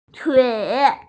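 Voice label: "tui3", Mandarin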